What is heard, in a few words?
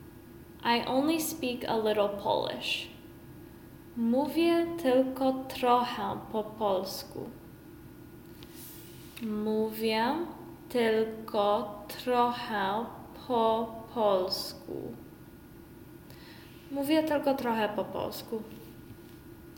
I only speak a little Polish, Polish; the talking polish phrasebook